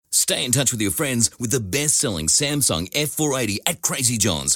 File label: hardsell